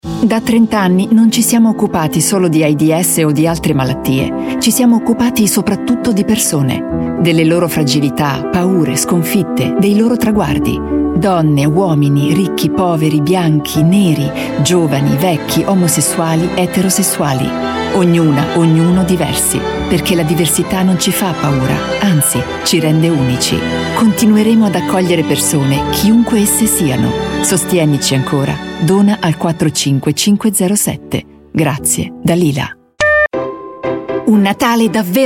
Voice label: italian voice over female commercial